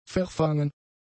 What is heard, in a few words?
"verfangen", German; adjectives